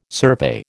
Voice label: "survey", American